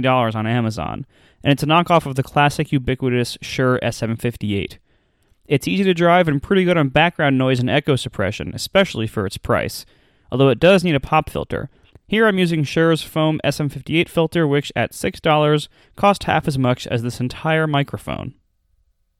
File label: PDMIC58 Behringer, microphones